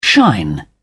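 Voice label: "shine", British English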